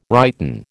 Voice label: "righten", American